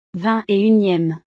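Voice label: "ving et unieme", French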